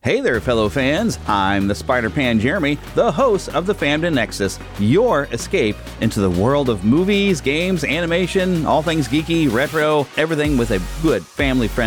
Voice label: Promo; neverlandpodcast; If you create a podcast, you can use one of these promos!